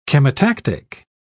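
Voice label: "chemotactic", British English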